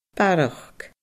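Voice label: "barrachd", Scottish Gaelic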